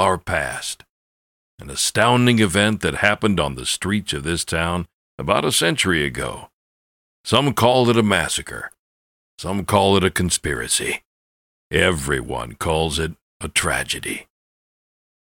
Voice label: Tour Guide

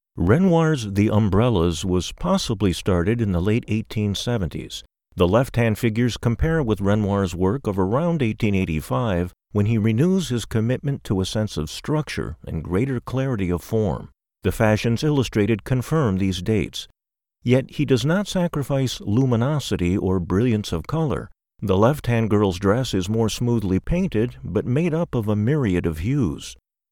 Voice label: Museum Audio Tour

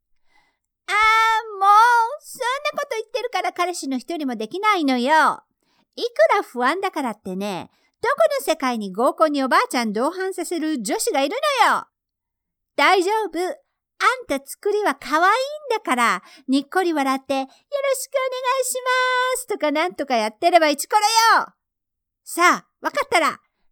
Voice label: character voice

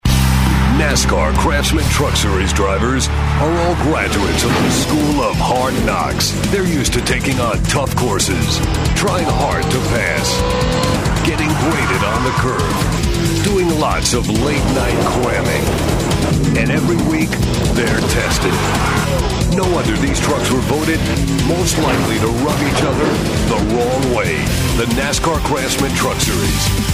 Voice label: Imaging; NASCAR

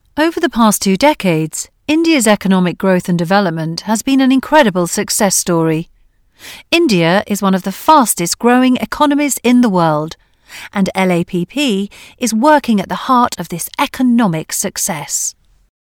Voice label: Presentation

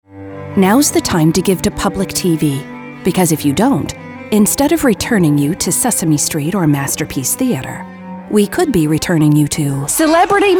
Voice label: announcement; PBS

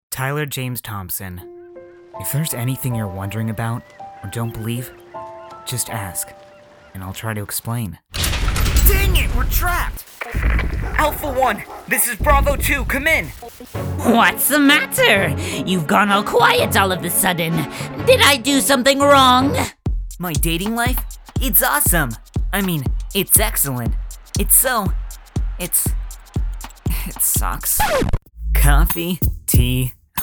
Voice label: Character Voice Acting

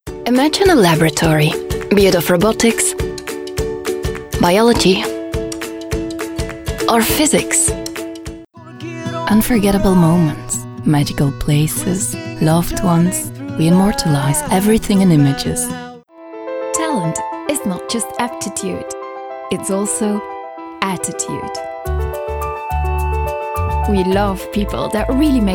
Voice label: Voice ENGLISH

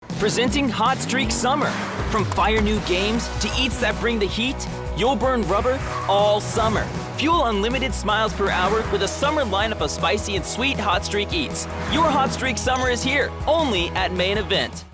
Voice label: COMMERCIAL

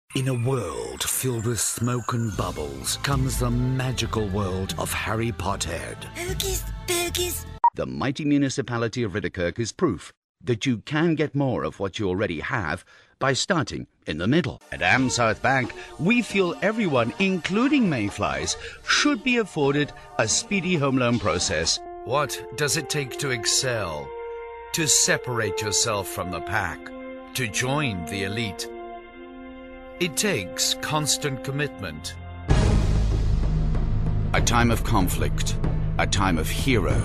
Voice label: Commercials Tv Radio Internet

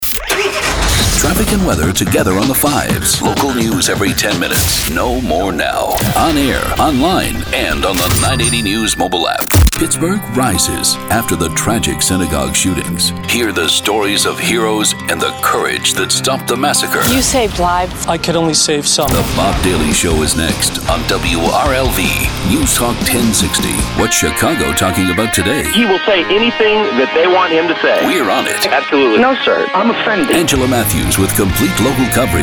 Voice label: NEWSTALK

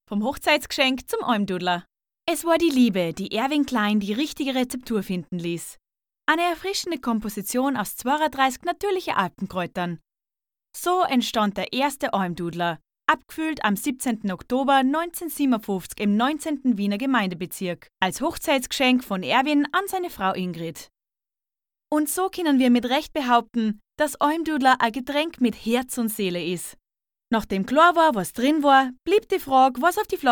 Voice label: AustrianGerman; Almdudler

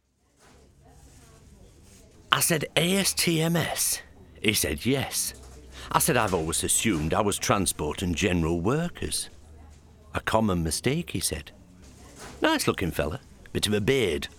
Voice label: Northern

